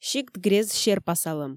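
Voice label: "сикт-грезд серпасалӧм", Komi, female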